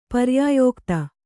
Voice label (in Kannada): "ಪರ್ಯಾಯೋಕ್ತಿ", Kannada